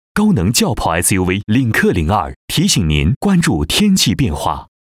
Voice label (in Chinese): 男 青春 天气预报 干声; 领克